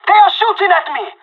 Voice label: radio taking fire, pirate; Counter-Strike Global Offensive